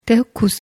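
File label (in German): "kuss", German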